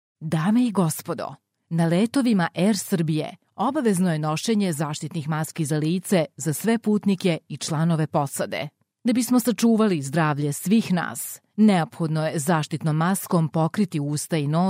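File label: Serbian, female, voice over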